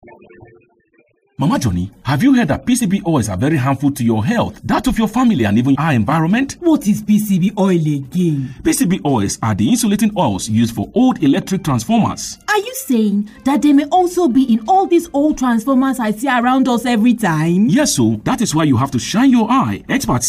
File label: Radio jingle in English language